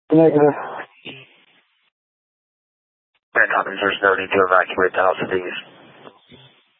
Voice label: Fire Departments VHF 154.800 Patch, Mesa Simulcast; TOPAZ